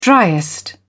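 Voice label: "driest", British English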